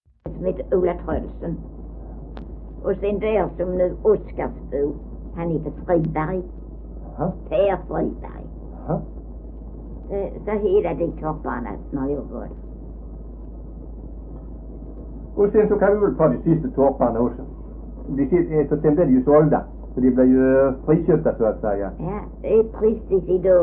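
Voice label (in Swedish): Äsphult Skåne, Svenska sagor dialekter; Folkminnen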